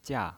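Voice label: "jia", fourth tone, pinyin voice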